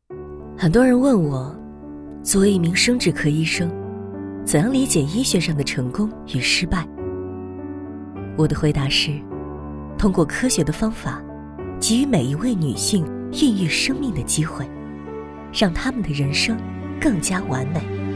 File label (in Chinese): 女 旁白 医生旁白